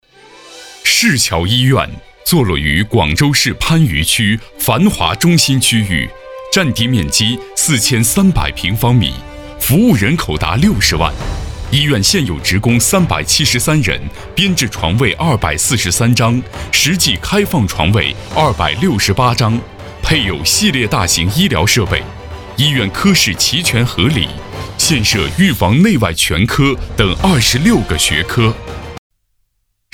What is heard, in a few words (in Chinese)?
男 宣传片; 市桥医院